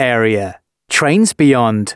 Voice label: announcements, male